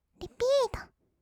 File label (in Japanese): リピート, kawaii voice; ASMR; 1200 kawaii voice!!; 音ゲー